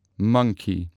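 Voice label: "monkey", English word; animals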